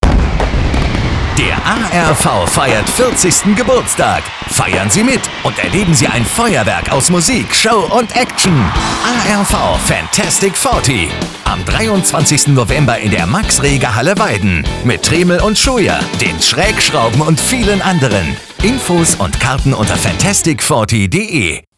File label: radio spot; ARV FantasticForty